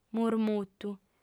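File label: "murmutu", female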